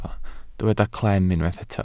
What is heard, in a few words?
"clem", Welsh